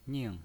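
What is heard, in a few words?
"ning" fourth tone, pinyin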